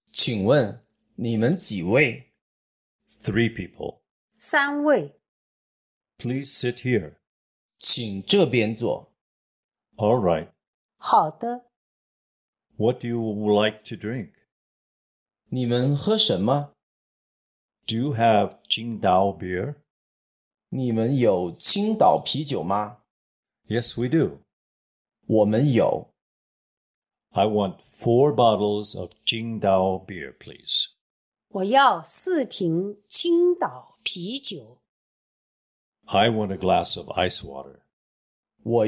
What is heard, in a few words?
Dialog